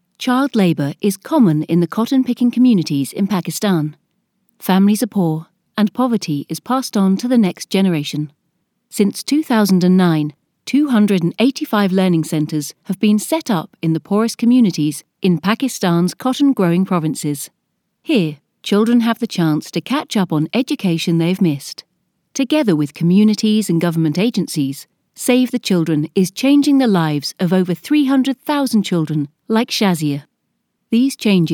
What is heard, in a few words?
english british documentaries